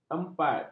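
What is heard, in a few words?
"empat", Malay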